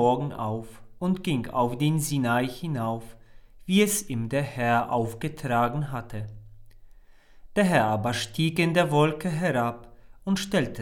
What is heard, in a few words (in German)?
2 Lesung; dreifaltigkeitssonntag a